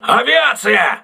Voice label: voice message air alert, crew ships russian

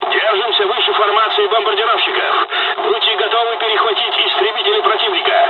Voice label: dialog, dialogs russian; midway; War Thunder

